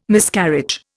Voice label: "Miscarriage", Indian